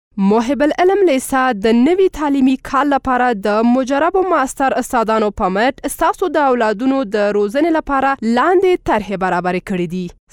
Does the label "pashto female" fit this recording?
Yes